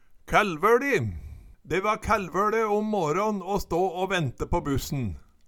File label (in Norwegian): kaellvoLi, Numedalsmål